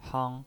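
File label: "hang", Mandarin Chinese, first tone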